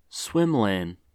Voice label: swim lane, en-us

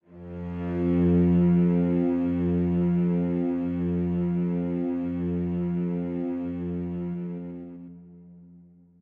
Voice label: sad

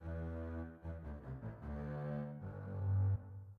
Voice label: papa bear angry

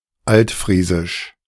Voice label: "Altfriesisch", De

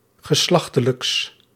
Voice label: "geslachtelijks", Nl

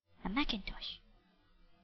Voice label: Kid line Fat Penguin

